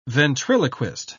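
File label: "ventriloquist", British English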